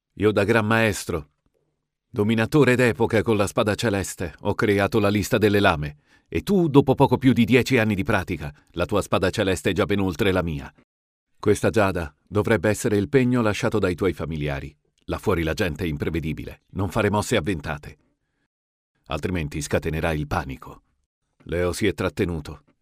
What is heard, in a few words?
locutor italiano italian voiceover